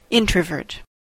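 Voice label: "introvert", American English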